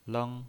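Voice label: "leng", pinyin, Mandarin Chinese, first tone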